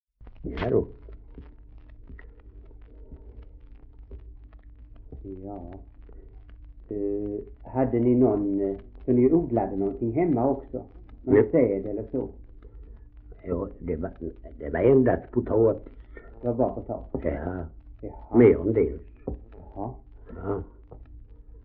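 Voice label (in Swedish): Ausås Skåne, dialekter; Folkminnen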